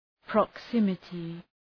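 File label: "proximity", English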